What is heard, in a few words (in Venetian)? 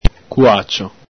"cuacio", Veneto